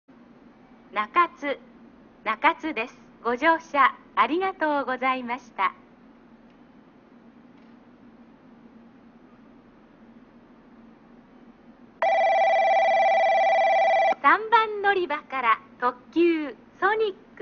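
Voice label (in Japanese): 到着放送＋発車放送＋乗換え放送, 中津駅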